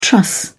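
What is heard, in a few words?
"truss", British English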